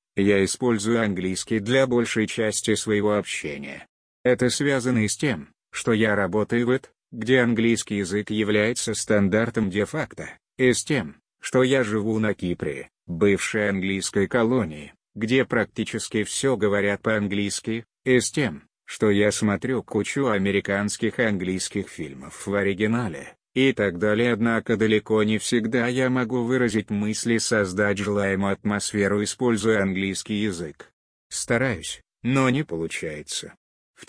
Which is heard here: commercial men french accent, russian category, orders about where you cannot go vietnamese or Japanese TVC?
russian category